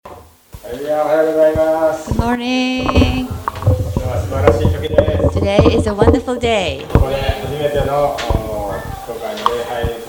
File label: anounce; sunday message 2015